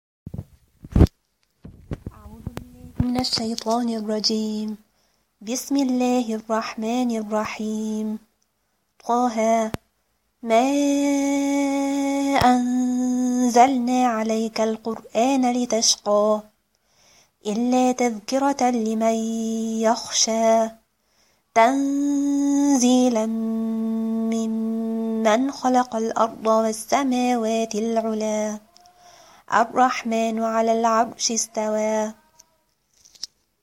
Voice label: voice, recitation